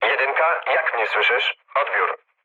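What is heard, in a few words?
Polish chat; War Thunder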